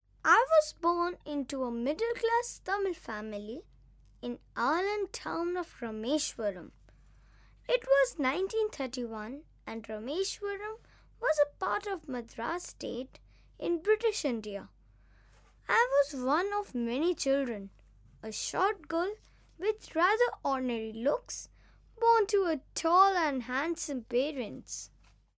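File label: child, English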